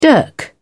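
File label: "dirk", British English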